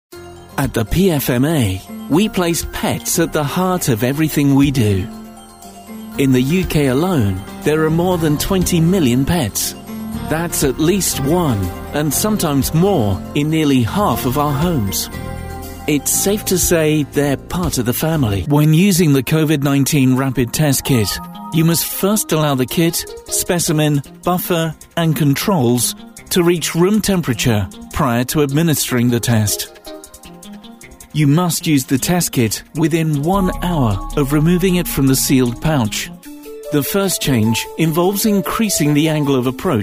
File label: Narration